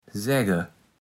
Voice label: "sägge", Platt

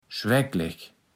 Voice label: "schwäckleg", Platt

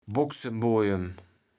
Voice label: "Boxembojem", kaevels platt

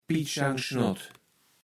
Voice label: "Pitt-Schang-Schnott", kaevels platt

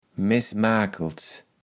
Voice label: "meßmakels", kaevels platt

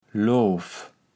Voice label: "Loof", kaevels platt

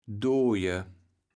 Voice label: "Doje", kaevels platt

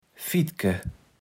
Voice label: "fittke", kaevels platt

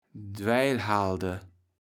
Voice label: "Dwäjlhalde", kaevels platt

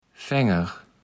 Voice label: "Fenger", Platt

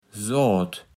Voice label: "Soat", platt

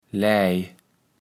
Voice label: "läj", kaevels platt

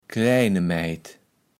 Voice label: "klääne Mäjd", kaevels platt

